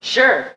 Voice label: "sure", boy